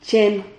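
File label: "chen", Spanish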